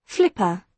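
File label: "flipper", British English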